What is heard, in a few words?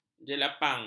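"jelapang", Malay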